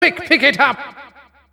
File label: neutral flag dropped, announcer; tf2cciv; open fortress